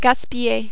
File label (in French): "gaspiller", French